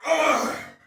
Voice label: Attack Heavy Soldier Male; Dialog; Barks; Battle; Sinecure

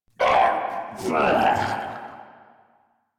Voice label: Death Ghoul Saw, en; Grineer Ghoul Sawman

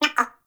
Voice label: Baby Vocal Spoken; Nokko; Warframe; Nokko Colony; Find Nokkos

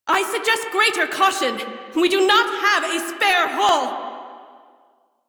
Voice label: Crew Ship Low, Female; Railjack Crew; Cephalon Suda

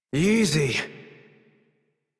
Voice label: Horse Calm Adult; Warframe; Duviri

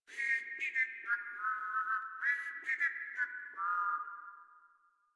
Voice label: Patrol, Grineer Male; Warframe

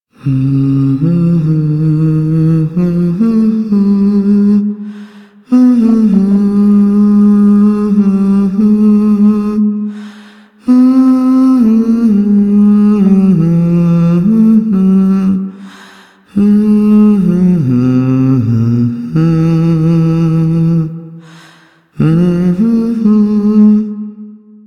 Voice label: Female; Duviri Ambient

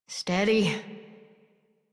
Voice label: Horse Calm Adult; Warframe; Duviri

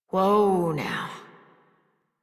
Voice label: Horse Stop Walk Adult; Warframe; Duviri

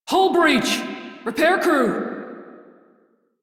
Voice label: Crew See Breach, Female; Dialog; en; Warframe; Railjack Crew; Steel Meridian

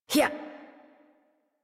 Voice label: Horse Start Adult; Warframe; Duviri

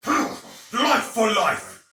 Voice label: See Ally Die, Soldier Male; Dialog; Barks; Battle; Sinecure

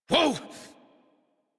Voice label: Horse Stop Adult; Warframe; Duviri

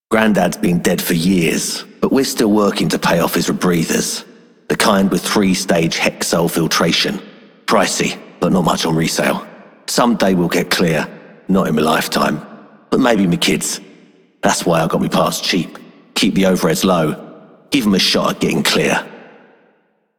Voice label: Male Dreams, Male One, en; Dialog; Warframe; Solaris Venus

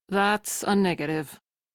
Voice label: Crew Reject, Female; Dialog; en; Warframe; Railjack Crew; Steel Meridian

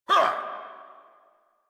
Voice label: angry, en; Warframe; Tyl Regor Boss; Efforts

